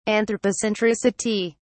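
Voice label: "anthropocentricity", British English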